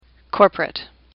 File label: "corporate", English; AWL; sublist 3